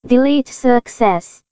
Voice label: delete success, en; rkfacial